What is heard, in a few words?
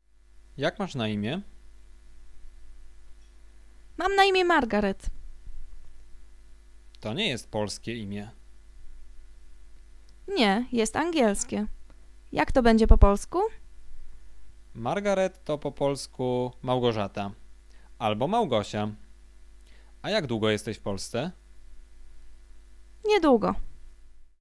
conversation; lektorek; firstyear